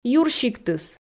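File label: "юрсиктыс", Komi, female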